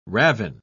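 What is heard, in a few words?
"ravin", British English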